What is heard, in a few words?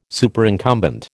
"Superincumbent", American